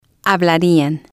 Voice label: "hablarian", spanish

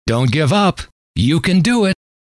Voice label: don't give up you can do it, M

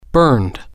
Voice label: "burned", Spanish

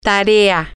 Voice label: homework, spanish, F

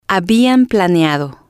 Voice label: "habian planeado", Spanish

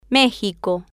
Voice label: "mexico", Spanish

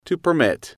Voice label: "to permit", English

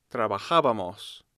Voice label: "Trabajabamos", spanish

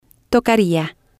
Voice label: "tocaria", spanish

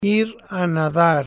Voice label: "ir A Nadar", spanish

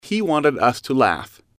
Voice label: "he wanted us to laugh", English

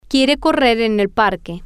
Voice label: he wants to run in the park, spanish, F

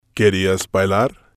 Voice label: "querias bailar", spanish

